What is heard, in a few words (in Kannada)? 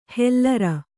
"ಹೆಲ್ಲರ", Kannada